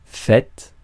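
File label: "fête", French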